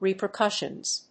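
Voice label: "Repercussions", English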